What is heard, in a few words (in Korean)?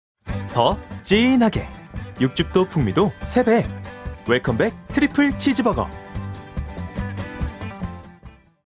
광고 신나는 자연스런 톤